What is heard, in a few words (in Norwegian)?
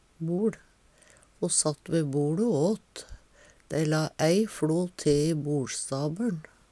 boL, Numedalsmål; Substantiv hokjønn